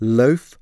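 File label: "loaf", English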